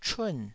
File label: "chun4", taiwanese